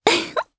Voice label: Happy, jp; Yuria; kingsraid